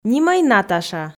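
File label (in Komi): нимӧй наташа, Komi, female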